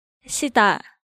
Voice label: "Sida", Korean; Hangeul sounds